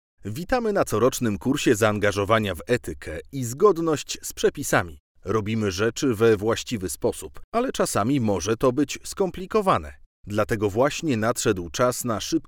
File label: polish e-learning